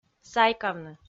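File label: "сайкавны", Komi, female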